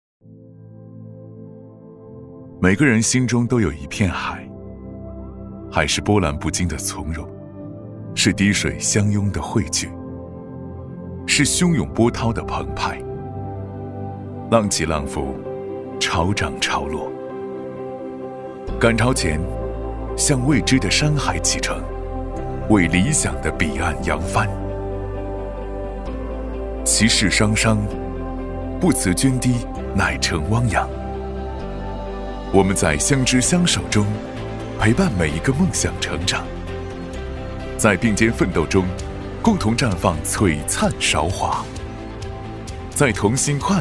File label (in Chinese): 男 银行企业文化宣传; 银行宣传样音; 厦门银行企业文化宣传